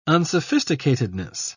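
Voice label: "unsophisticatedness", British English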